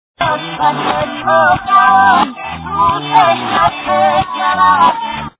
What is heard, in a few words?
reverse; Evidencias cristianas